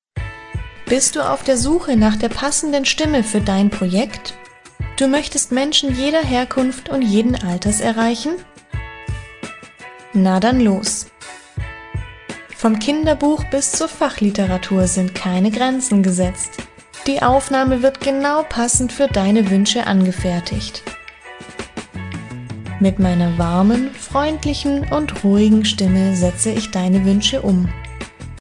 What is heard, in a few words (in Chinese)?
德语女声 广告